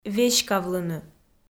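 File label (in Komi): "веськавлыны", Komi, female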